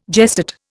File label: "gestate", Indian